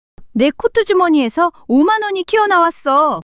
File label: surprise, monologue